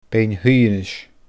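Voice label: "Beinn Haoidhinis", Scottish Gaelic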